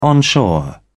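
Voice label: "onshore", British English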